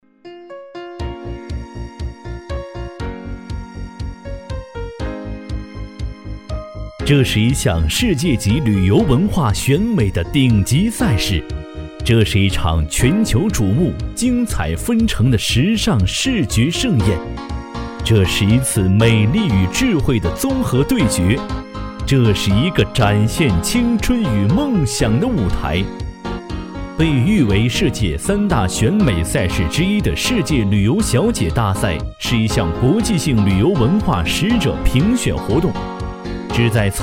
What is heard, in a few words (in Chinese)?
男 专题 招商形象片; 世界旅游小姐大赛